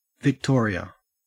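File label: "victoria", Australian English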